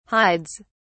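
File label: "hides", English, British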